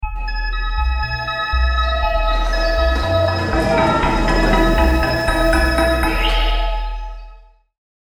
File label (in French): Musique narration; DEVOIRS